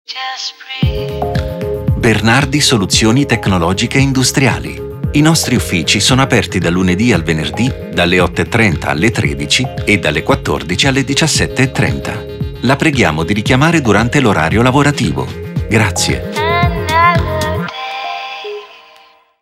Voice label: italian ivr